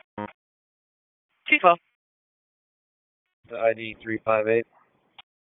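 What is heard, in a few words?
Police Dispatch, p25; Raleigh Police Northeast District Disp; wake p25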